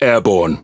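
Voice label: Pirate Speech Pilots Torpedo Airborne, English pirate; World Of Warships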